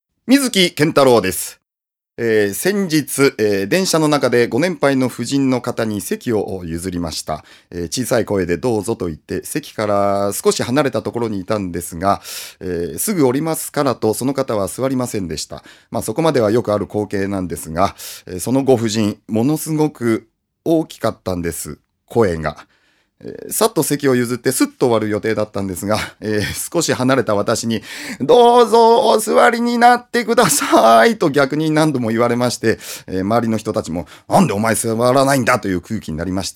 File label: freetalk, male voice